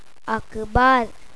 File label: newspaper, punjabi